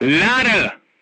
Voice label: voice message reloading, crew tanks german

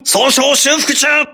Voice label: repairing, voice message, crew ships japanese

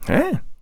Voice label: taunt eh

